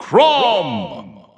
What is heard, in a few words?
English Announcer; Chrom; SSBU